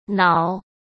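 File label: "挠", Mandarin Chinese; chinese-flashcards; hsk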